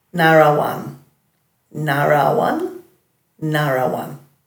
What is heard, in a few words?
"naraawanj", Dhurga